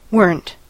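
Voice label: "weren't", American English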